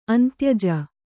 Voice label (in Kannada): "ಅಂತ್ಯಜ", Kannada